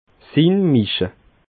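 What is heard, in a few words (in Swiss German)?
"inmiche", Alsatian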